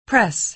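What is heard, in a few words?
"press", American English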